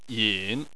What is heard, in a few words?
"yin3", Chinese